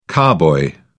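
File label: "carboy", British English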